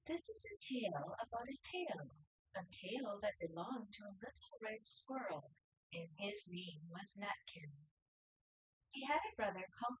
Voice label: female4 synthconv; SiSEC08; SiSEC underdetermined